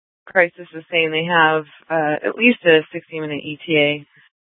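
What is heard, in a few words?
Scottsdale PD North Dispatch; Nth Dispatch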